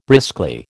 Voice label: "briskly", American